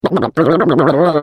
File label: gibberish; GLaDOS; sp sabotage